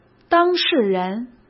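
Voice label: "当事人", Mandarin Chinese; hsk; chinese flashcards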